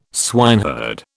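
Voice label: "swineherd", British English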